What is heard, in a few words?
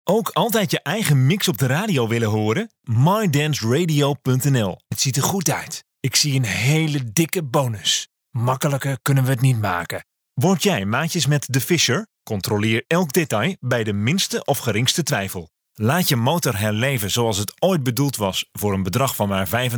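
Dutch, male, voice over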